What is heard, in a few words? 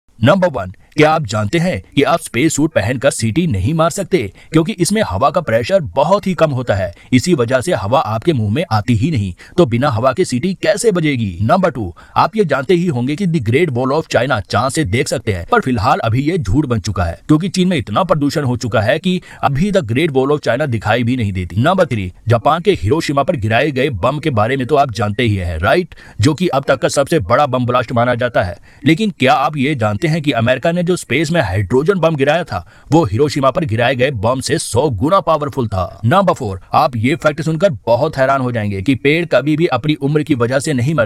best hindi short facts